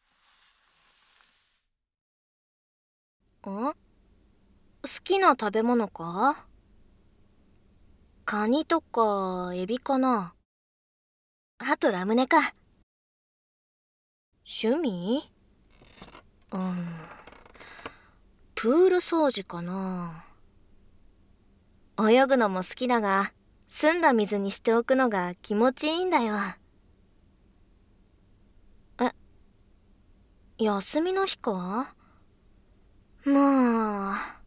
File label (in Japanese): メイドさんの耳かき導入編（ノイズ除去なし）; ますずさんと耳かき